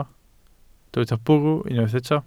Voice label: "bwrw", Welsh